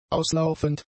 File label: "auslaufend", German; adjectives